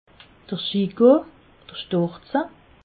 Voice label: "schigo", alsace

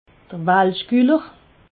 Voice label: "walschguller", alsace

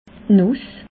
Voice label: "nuss", alsace

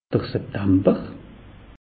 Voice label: "septamber", alsace; meteo

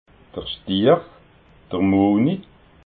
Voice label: "stier", alsace

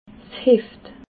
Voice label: "heft", alsace

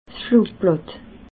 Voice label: "flugblatt", alsace

